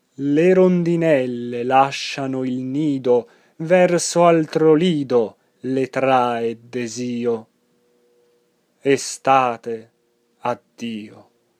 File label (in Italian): espressivo